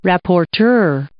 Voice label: "rapporteur", English